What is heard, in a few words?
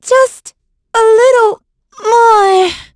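Vox Dead, English; Estelle; kings raid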